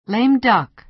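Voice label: "lame duck", American English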